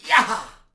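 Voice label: Ancient Scientist Act Vox; Civ4 warlords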